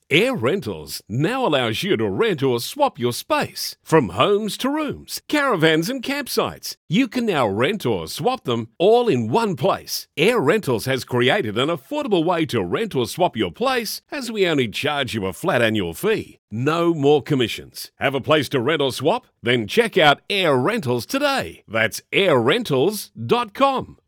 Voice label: Aussie voice over